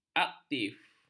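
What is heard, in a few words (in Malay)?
"aktif", Malay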